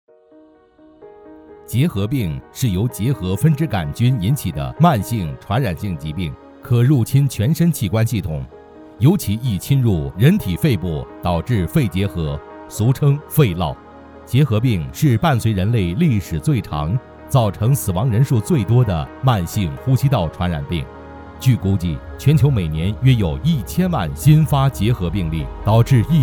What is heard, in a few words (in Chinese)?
广播疫情介绍